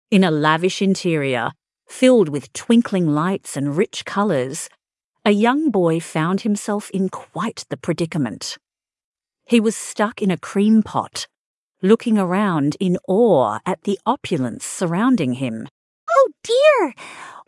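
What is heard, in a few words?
Upstairs Downstairs, female Australian story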